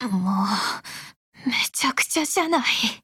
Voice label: VO RETREAT